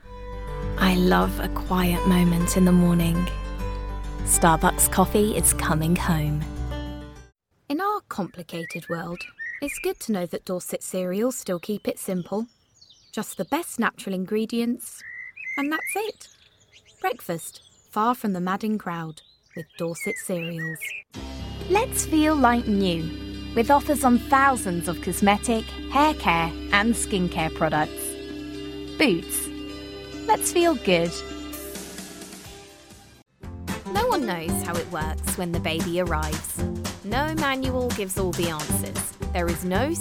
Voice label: Commercial Reel

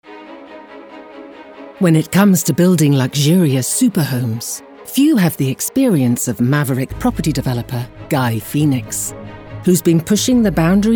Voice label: Documentary; Superhomes

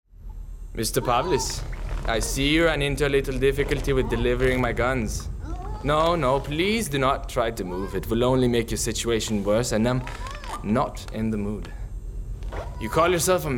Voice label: VO Reel Character Montage

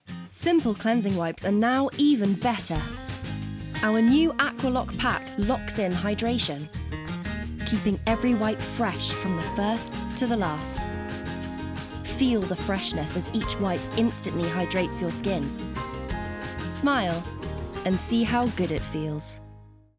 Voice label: Commercial Reel; Simple

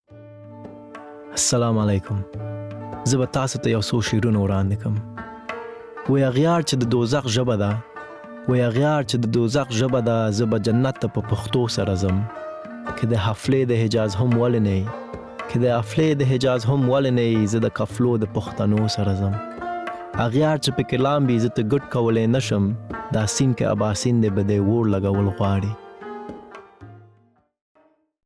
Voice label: poem

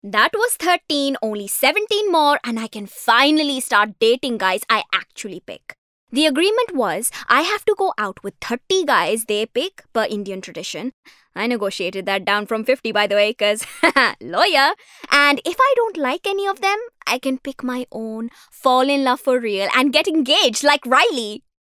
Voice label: English with Indian Accent

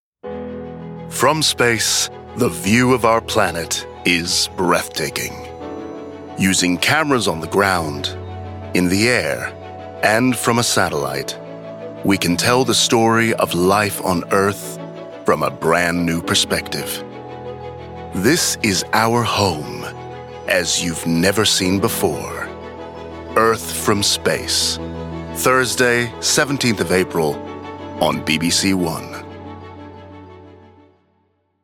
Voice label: promo

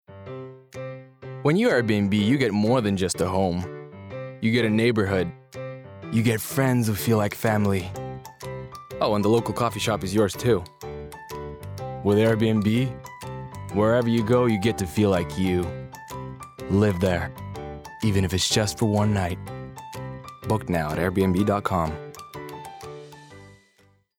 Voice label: VO Reel; Air BnB

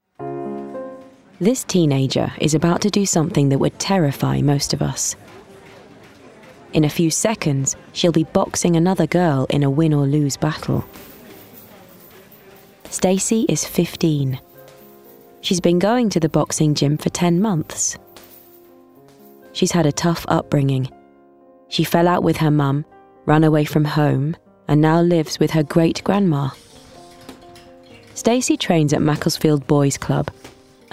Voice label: DOCUMENTARY; BoxingGirls

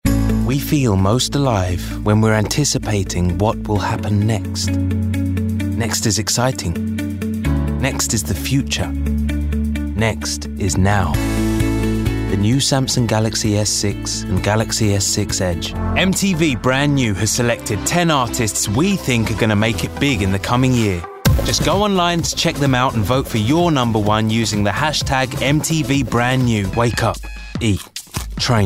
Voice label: commercial

